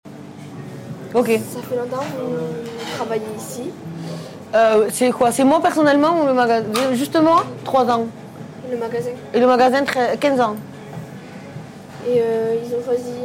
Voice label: MICROTROTTOIR COMMERCANTE

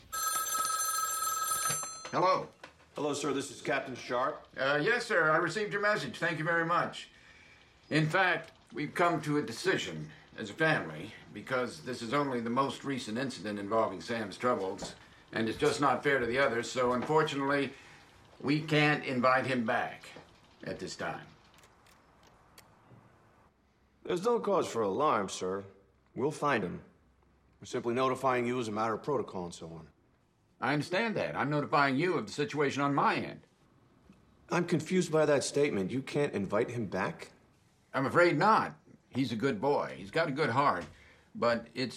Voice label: Conversation telephonique VO

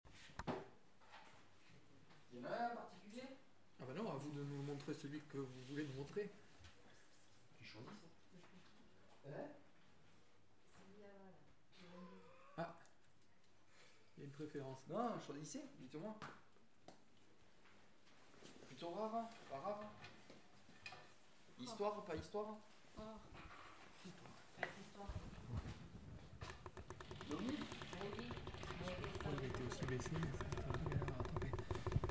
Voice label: SITUATION DIALOGUES AMBIANCE; collège Renée Taillefer; Coutelier

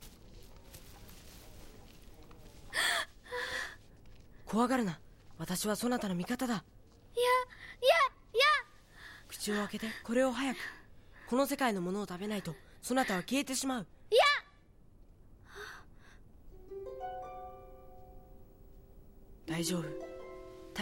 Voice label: Dialogue VO; Chihiro; Haku

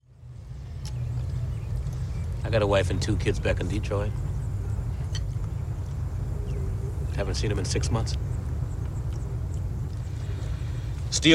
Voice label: Dialogue VO

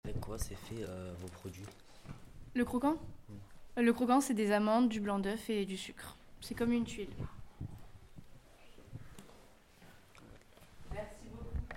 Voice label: MICROTROTTOIR COMPOSITION DU CROQUANT

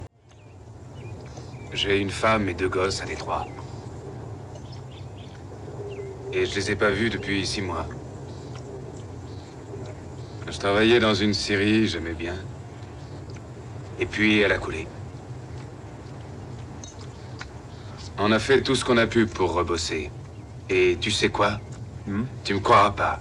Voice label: Dialogue VF

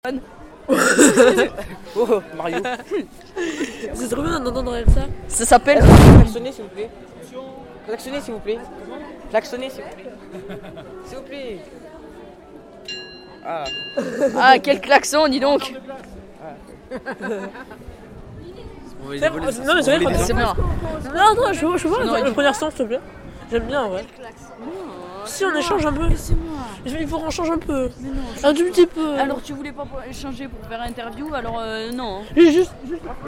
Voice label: MICROTROTTOIR AMBIANCE; A PROPOS DE L ANGLE

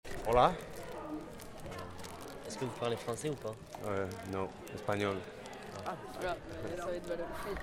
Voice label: MICROTROTTOIR RENCONTRE ESPAGNOLS

